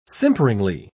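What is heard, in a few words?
"simperingly", British English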